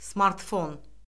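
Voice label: "smartfon", Azerbaijani; Orfoqrafiya Lüğəti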